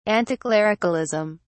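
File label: "anticlericalism", English, British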